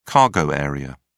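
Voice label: "cargo area", British English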